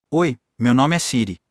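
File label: Brazilian Portuguese; iOS 26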